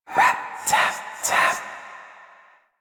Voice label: Intelligible Whisper Rap Tap Tap D; Rell; Warframe; Harrow